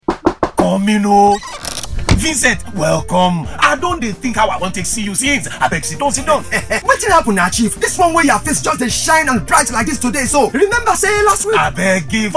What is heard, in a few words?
RADIO AD PIDGIN VERSION; LINKAGE ASSURANCE